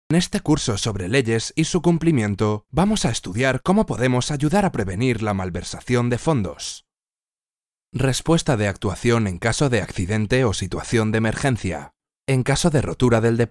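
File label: Spanish male; 西班牙语; 外语; 小语种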